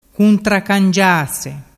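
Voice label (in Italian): "cuntracangiase", Vernazzese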